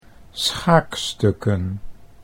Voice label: "schaakstukken", Dutch